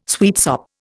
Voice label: "sweetsop", Indian